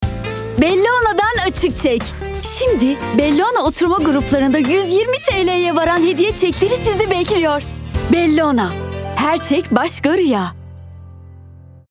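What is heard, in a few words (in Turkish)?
kampanya, seslendirme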